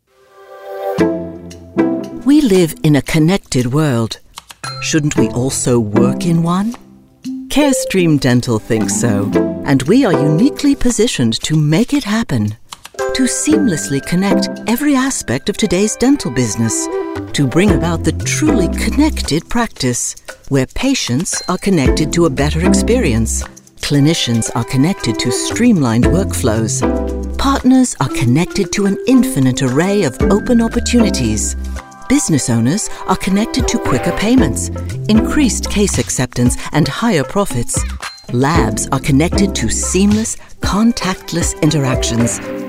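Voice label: Coversational Journey Charisma - Spanish; Carestream Dental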